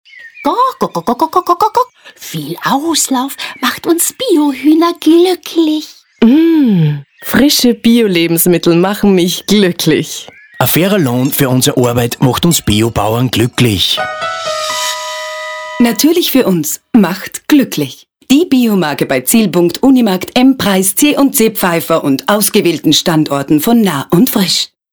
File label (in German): Bsp Tierstimme Werbung; Beispiel Tierstimme Werbespot Ö3; Voice Design